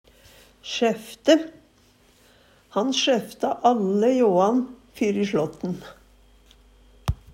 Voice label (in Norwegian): sjaefte, Numedalsmål